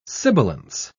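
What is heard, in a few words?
"sibilance", British English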